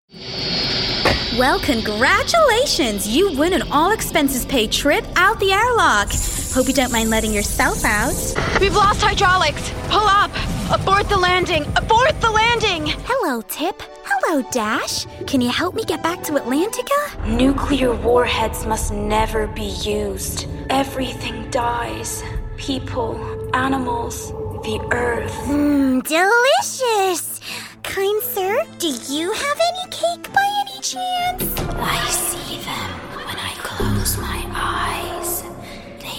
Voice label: American